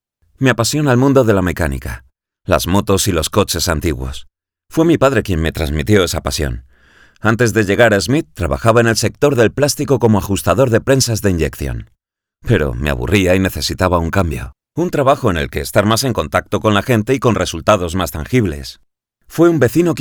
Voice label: spanish podcasts; Soy locutor y actor de doblaje en España.